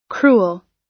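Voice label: "cruel", American English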